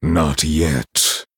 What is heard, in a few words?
Male Night Elf ERROR I ATTACKED